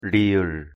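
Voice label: "ㄹ", Korean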